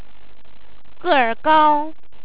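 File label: "ge4rga1o", Chinese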